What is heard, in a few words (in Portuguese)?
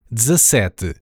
"dezessete", Portuguese; numbers in portuguese